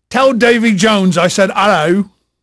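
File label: English male, voice; Pirates Of The Caribbean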